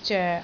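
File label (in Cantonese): "zoek6", Cantonese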